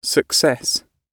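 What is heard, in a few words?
"success" British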